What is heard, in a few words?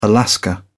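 "alaska", British English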